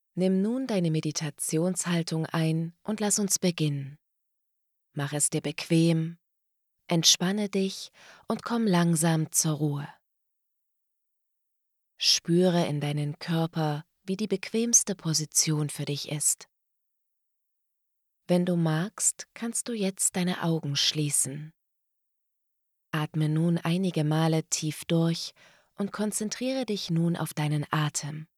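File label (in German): Entspannung, sprecherin; Hörbuch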